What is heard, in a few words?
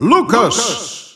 Dutch Announcer; Lucas; SSBU